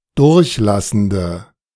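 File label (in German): "durchlassende", German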